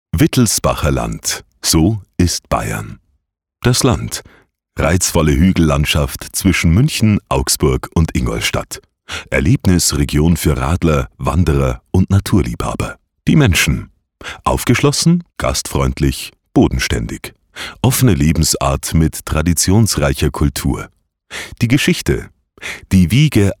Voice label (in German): Imagefilm bayerisch